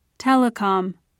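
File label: "telecom", British English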